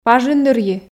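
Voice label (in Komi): пажын дырйи, Komi, female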